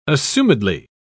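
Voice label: "assumedly", British English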